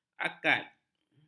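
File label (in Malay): "akad", Malay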